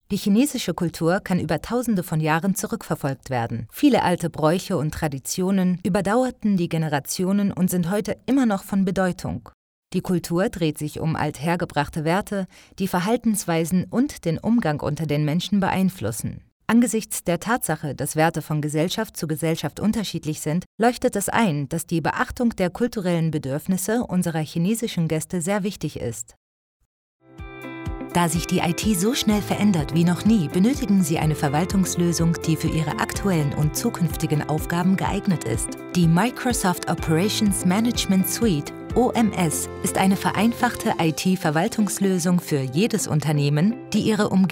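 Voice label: German e learning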